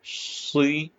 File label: short voyels arabic alphabet